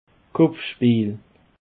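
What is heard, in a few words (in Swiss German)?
"kopfspeel", Alsatian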